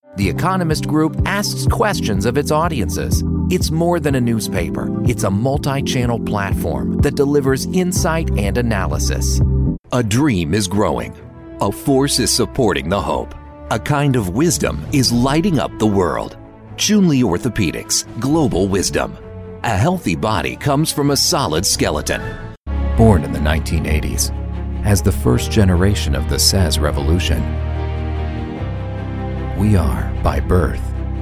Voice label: Corporate